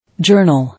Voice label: "Journal", English